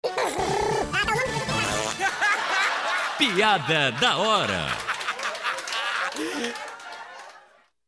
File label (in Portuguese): vinheta piada